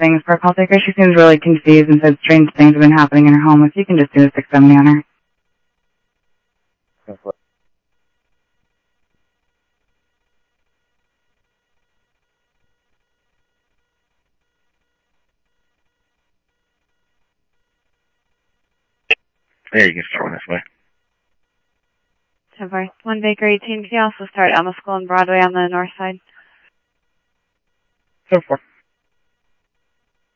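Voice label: scanner audio trunk, South Scottsdale, Sheriff East County; MCSO - EAST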